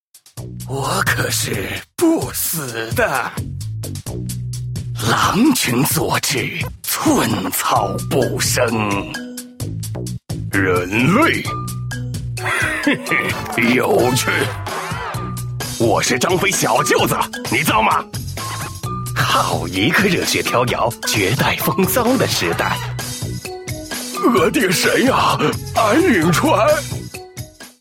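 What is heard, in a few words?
male other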